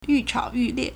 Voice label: "yu4chao3yu4lie4", Chinese

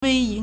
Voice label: "wei1", Chinese

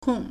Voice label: "kong4", Chinese

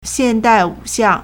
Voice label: "xian4dai4wu3xiang4", Chinese

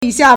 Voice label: "yi3 xia4", Chinese

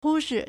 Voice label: "hu1 shi4", Chinese

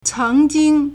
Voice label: "ceng2jing1", Chinese

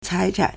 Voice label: "cai2chan3", Chinese

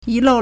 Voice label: "yi2 lou4", Chinese

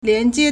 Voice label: "lian4jie1", Chinese; 链接; liànjiē; link (on a website); hyperlink

